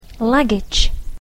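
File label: "luggage; baggage", English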